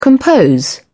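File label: "compose", English, British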